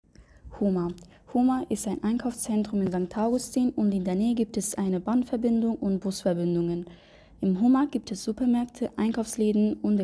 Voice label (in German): Deutsch